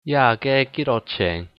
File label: how much, hakka